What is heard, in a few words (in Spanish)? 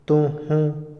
"cantar", Otomí, hombre; Habla; INALI